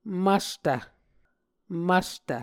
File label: "mvstv", Muscogee